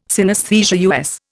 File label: "synesthesia", US